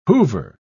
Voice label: "hoover", pronounce

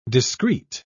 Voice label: "discreet", pronounce

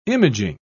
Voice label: "imaging", pronounce

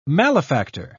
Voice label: "malefactor", pronounce

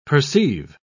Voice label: "perceive", pronounce

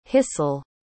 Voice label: "hissel", pronounce

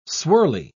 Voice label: "swirly", pronounce

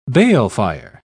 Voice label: "balefire", pronounce

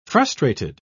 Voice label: "frustrated", pronounce

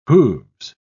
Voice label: "hooves", pronounce

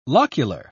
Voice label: "locular", pronounce

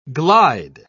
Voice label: "glide", pronounce

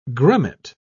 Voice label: "grummet", pronounce

